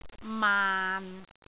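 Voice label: "maan6", Cantonese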